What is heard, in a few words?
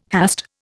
"hast", Indian